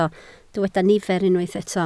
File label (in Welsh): "nifer" Welsh